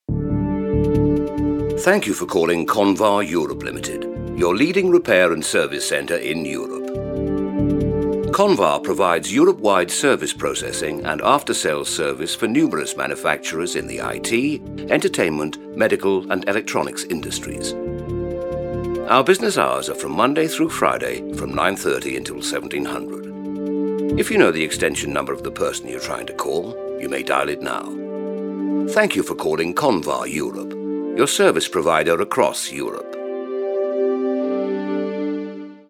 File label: On Hold; Voice-over demos; on hold voices